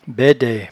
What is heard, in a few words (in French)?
"baidaie", patois jura